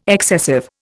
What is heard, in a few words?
"excessive", Indian